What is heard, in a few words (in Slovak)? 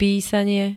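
"písanie", slovenské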